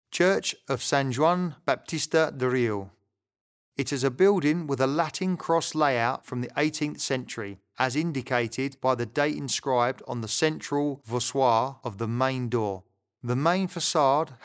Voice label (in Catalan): locucio angles; sant esteve guils